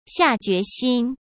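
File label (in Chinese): "下决心", Mandarin Chinese; chinese flashcards; hsk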